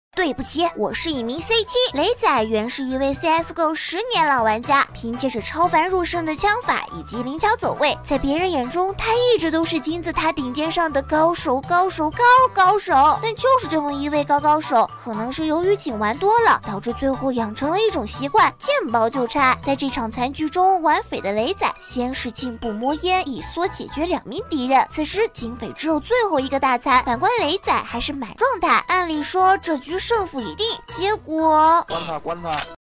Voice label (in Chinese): 自媒体解说